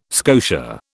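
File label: "scotia", British English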